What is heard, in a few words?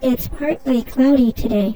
high voice child; MorphVOX Pro